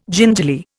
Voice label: "gingerly", Indian